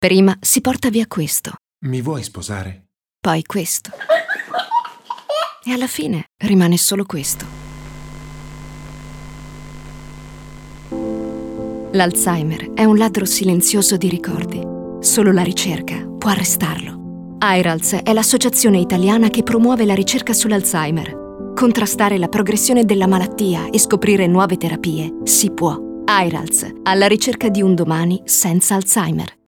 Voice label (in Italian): comunicato radio; Airalzh